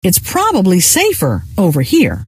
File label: probably safer, scientist female